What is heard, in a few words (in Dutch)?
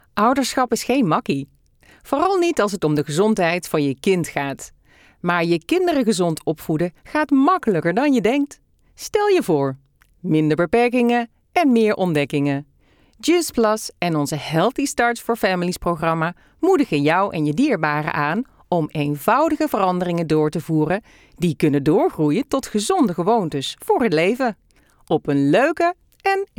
Narratief; Juice